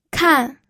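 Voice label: "kan", Mandarin Chinese, fourth tone; pinyin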